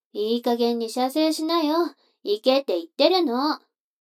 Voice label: 女の子 台詞

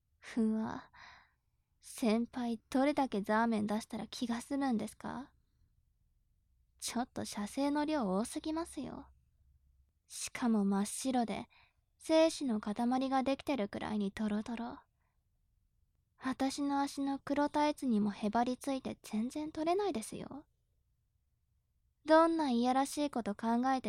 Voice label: 調教中音声

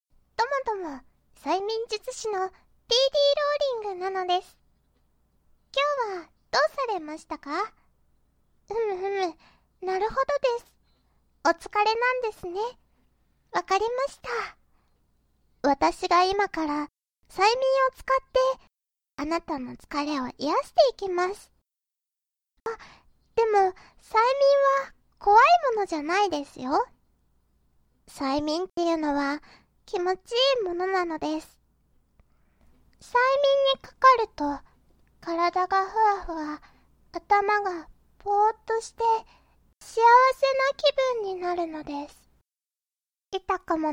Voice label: 催眠導入から深化; おちんちん催眠音声のみ

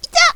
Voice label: "いたいっ", 被ダメージ

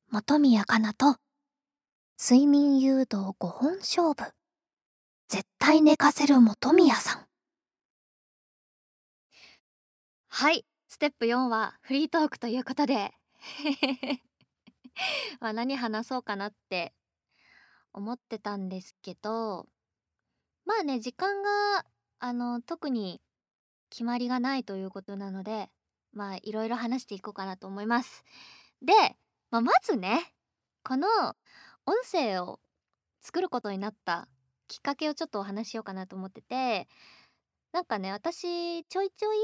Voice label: フリートーク, ASMR

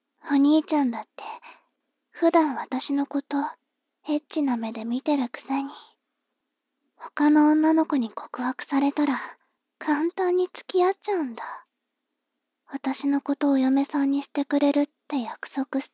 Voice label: 妹 台詞

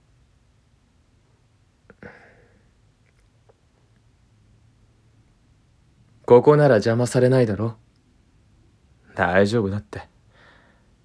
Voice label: 少し低音ボイスの上司; 【エロチョイ】少し低音ボイスの上司と危ない昼休み ここなら安心だろ

